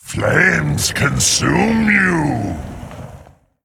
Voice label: Male Elemental Play